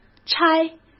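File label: "拆", Mandarin Chinese; chinese-flashcards; hsk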